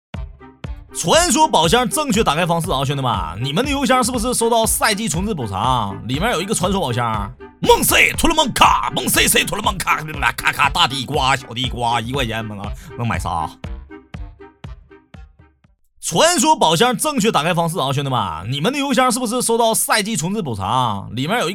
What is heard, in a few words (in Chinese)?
抖音信息流东北方言